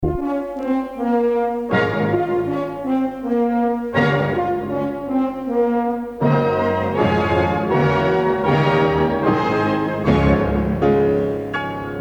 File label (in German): jingle anmoderation